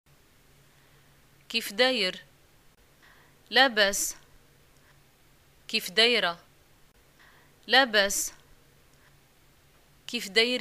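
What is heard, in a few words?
greeting how are you, Moroccan arabic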